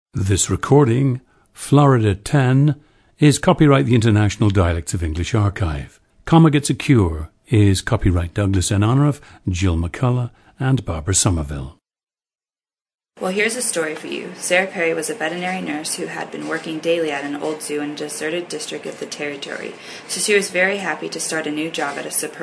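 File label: florida, International Dialects of English; Florida 10; a 19-year-old woman from Pensacola, Florida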